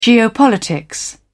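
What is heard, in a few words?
"geopolitics", British English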